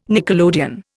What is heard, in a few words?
"nickelodeon", Indian